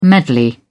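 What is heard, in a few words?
"medley", English, British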